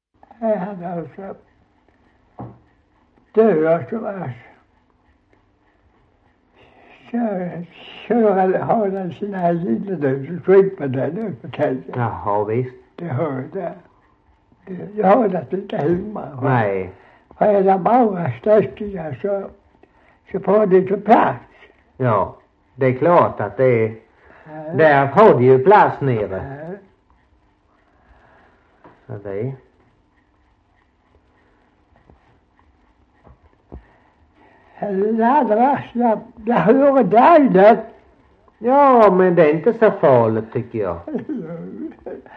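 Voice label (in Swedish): Slättåkra Halland, Svenska sagor, dialekter; Folkminnen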